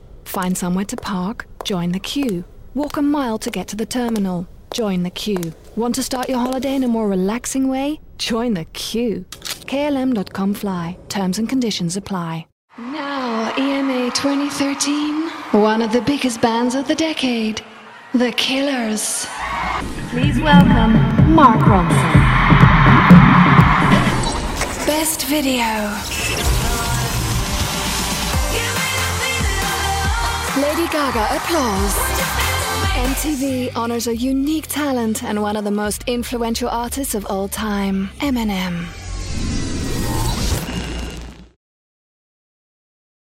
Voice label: female dutch english with undetectable and dutch accents; commercial